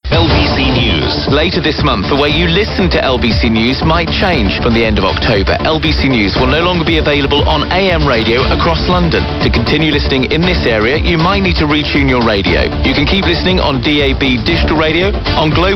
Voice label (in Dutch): LBC aankondiging afschakeling AM; LBC News; middengolf; Global